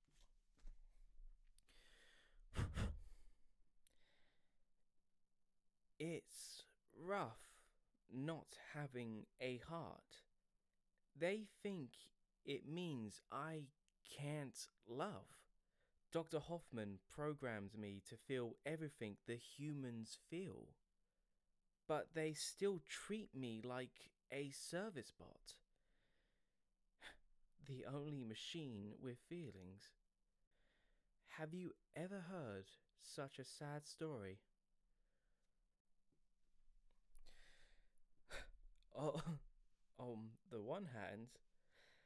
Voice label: sad robot voice